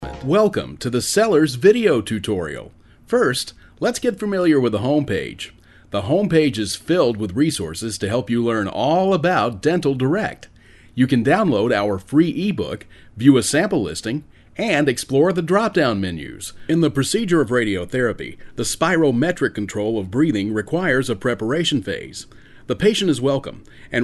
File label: e-learning